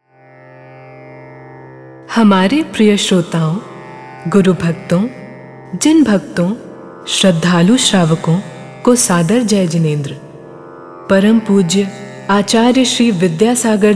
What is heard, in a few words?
Announcement